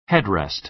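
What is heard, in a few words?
"headrest", American English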